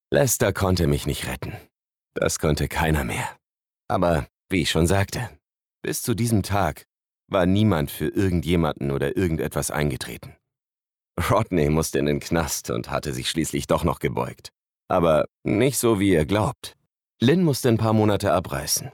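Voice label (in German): Erzählung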